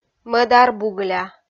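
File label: "мӧдар бугыля", Komi-Zyrian, female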